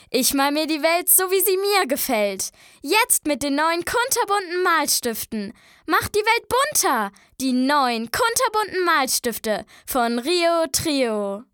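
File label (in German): Mädchen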